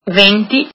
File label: twenty, italian